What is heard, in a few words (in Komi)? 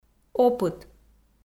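"опыт", Komi, female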